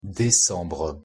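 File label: pronunciation fr "décembre"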